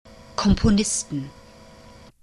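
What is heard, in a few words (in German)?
"komponisten", German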